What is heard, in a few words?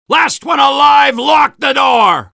soldier battlecry; svencoop addon; tfc; player